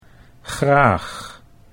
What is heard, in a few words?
"graag", Dutch